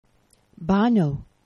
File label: "bano", esperanto; geneva